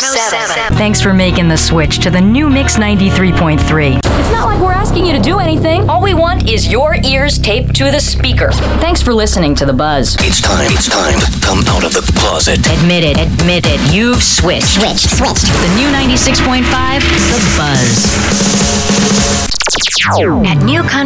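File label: Radio Imaging, voiceovers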